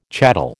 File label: "chattel", American